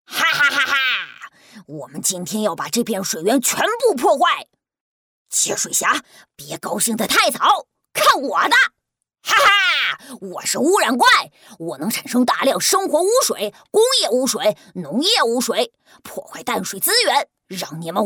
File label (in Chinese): 女 卡通童声 男童 反派; 污染怪